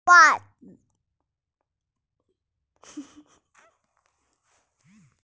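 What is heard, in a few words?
"wa4zi5", Mandarin Chinese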